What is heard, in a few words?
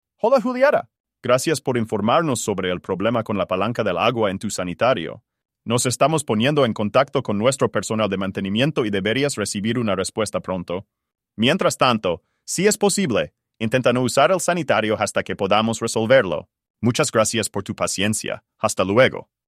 spanish response